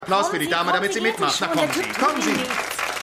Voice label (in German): Frau